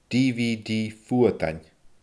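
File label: "DVD fuadain", Scottish Gaelic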